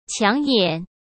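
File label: "抢眼", Mandarin Chinese; hsk; chinese flashcards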